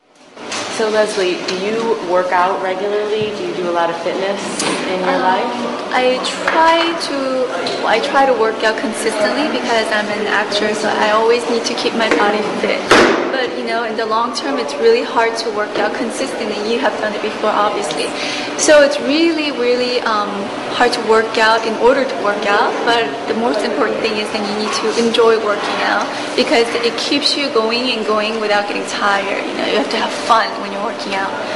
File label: korean actress american english interview